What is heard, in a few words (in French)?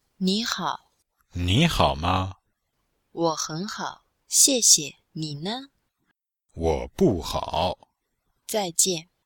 dialogue, chinois